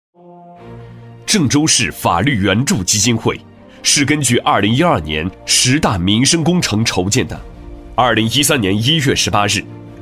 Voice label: 男 企业宣传; 男25-年轻激情 大气浑厚; 郑州市法律援助基金会

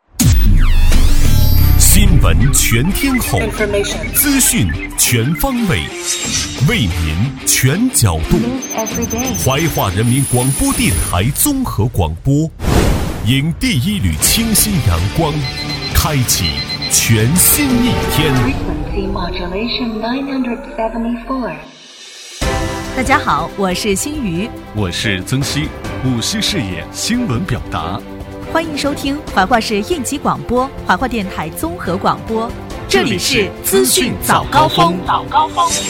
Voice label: 男, 年轻气质 素人自然; 怀化广播台

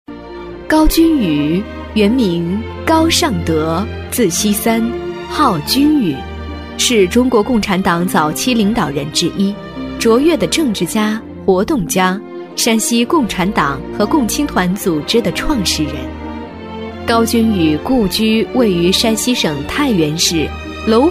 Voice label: 女 人物专题【稳重大气】; 沉稳大气

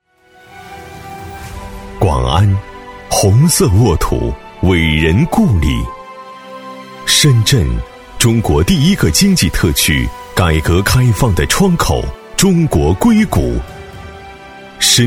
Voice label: 男 宣传 展馆; 男173-年轻浑厚 大气浑厚; 广安（深圳）产业园展馆

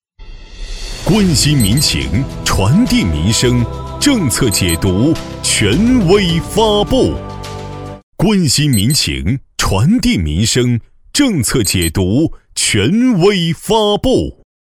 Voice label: 男 节目片花, 年轻浑厚 大气浑厚; 权威发布

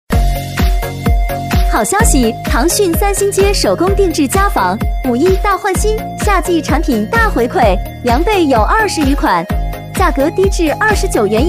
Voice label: 甜美促销女 手工定制家纺五一大换新; 激情力度

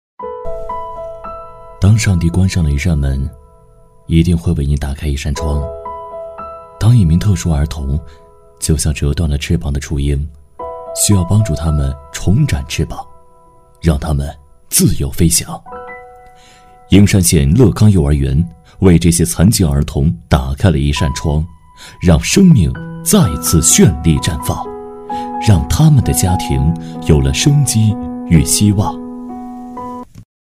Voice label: 男 幼儿园宣传片 温情柔和; 男D5-厚重有力; 科技时尚

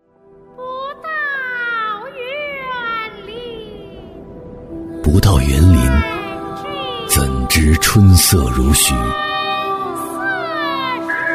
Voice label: 男 城市广告宣传; 男319-高端品质 大气浑厚; 长沙

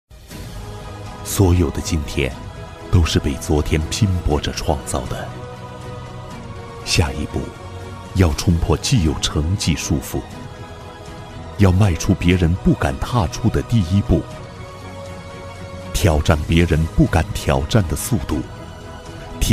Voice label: 男 品牌广告形象 走心 大气; 大气激情 高端大气; 顺丰

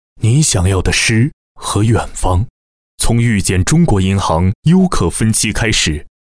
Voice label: 男 形象广告 质感磁性; 质感磁性 高端大气; 中国银行

